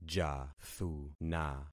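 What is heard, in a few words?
"jathuna", concatenated syllables; Affixing experiment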